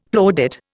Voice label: "plaudit", Indian; Dictionary